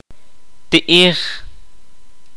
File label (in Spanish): "carne", Kaqchikel